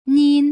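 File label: "nin", Putonghua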